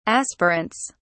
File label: aspirants, uk